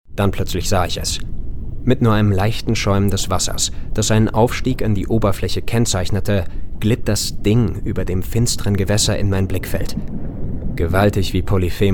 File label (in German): Hörspiel; Dagon; Stimmfarbe: Weich, Warm, Rauchig